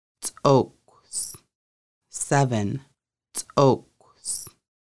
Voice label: Seven, conversational Lushootseed; Conversational Lushootseed: Lessons 1-5

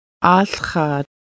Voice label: located downstream, Lushootseed

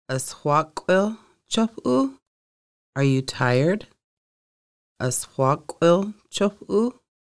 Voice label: Are you tired, conversational Lushootseed

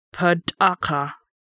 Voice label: "August", Lushootseed

